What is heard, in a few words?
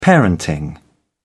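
"parenting", British English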